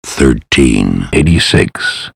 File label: 1386 soft, Dry Voiceovers